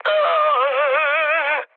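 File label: Korean damaged pilot mood low, speech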